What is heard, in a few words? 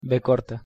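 pronunciation "v", Spanish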